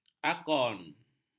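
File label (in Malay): "akon", Malay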